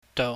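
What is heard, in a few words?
"dou", Cantonese, mid level tone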